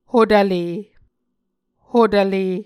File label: "hotvle", Muscogee